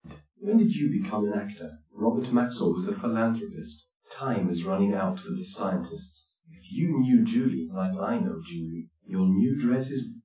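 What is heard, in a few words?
male speech; SiSEC10; underdetermined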